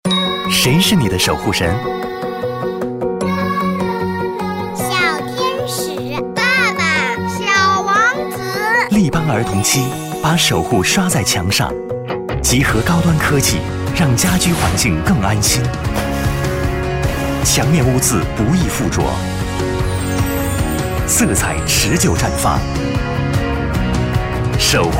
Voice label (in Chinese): 男 广告 国语男声配音